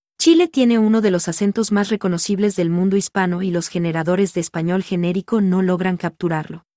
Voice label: female short; vocero s2s